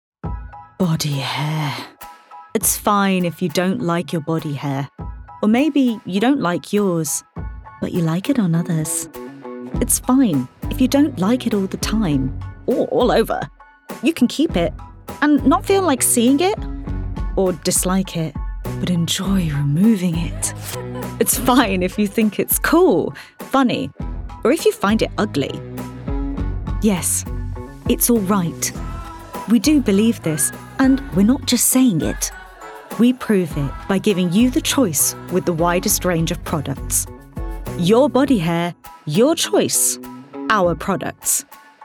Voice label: female english commercial, english northern accents; veet